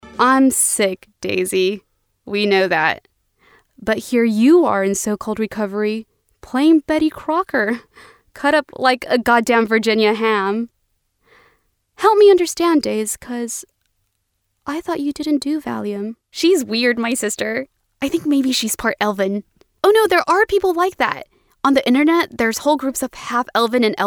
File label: Audiobook